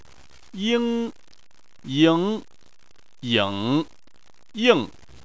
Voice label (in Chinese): "ying", Chinese